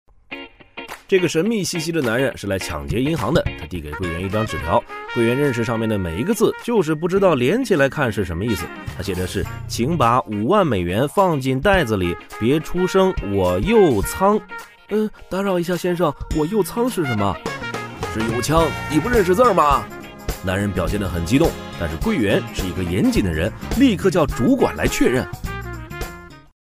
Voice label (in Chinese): 男 电影解说 快速有趣